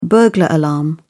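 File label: "burglar alarm", British English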